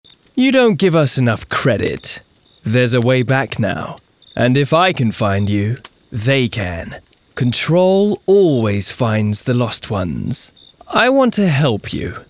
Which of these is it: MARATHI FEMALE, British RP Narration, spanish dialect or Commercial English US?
British RP Narration